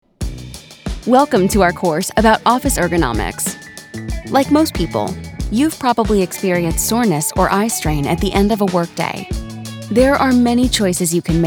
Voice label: eLearning